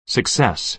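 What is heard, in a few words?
"success", American English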